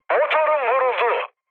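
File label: Turkish damaged engine, mood med, speech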